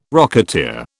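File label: "rocketeer", British English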